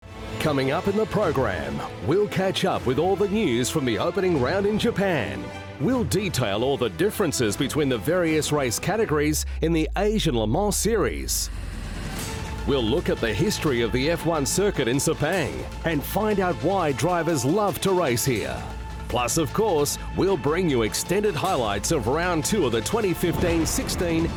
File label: radio tv imaging